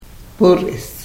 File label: "purith", karuk